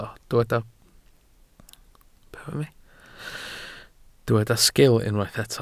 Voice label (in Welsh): "sgil", Welsh